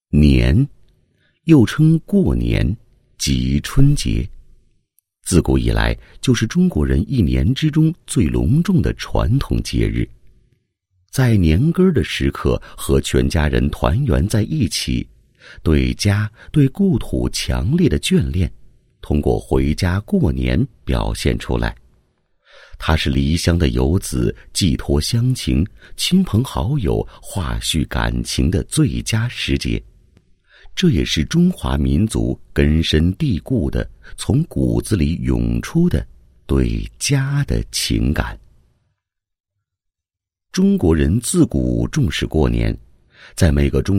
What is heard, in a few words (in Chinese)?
男 文化 中国年文化 年味, 配音